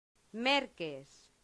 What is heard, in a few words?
"merces", latin; santillana